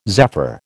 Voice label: "zephyr", American